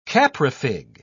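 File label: "caprifig", English, British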